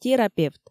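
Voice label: "терапевт", Komi, female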